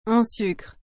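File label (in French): "en sucre", French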